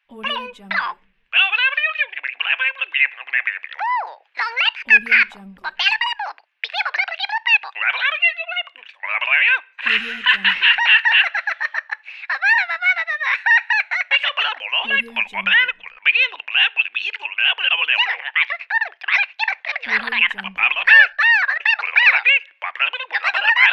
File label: cartoon telephone babble; n-audio